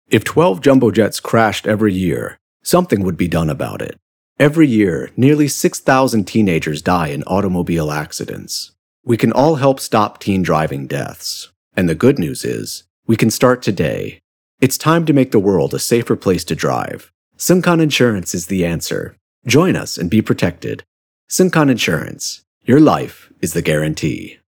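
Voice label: ENGLISH FORMAL, voice sounds serious and powerful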